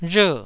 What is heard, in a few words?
"rher4", taiwanese